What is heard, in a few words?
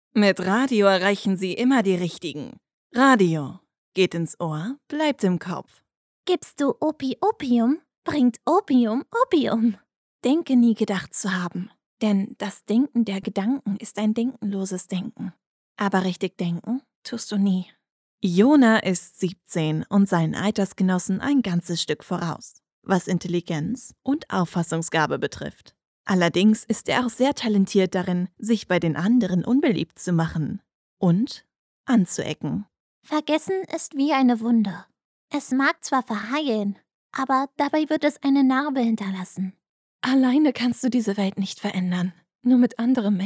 german